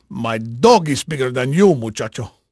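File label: Spanish male, voice; Pirates Of The Caribbean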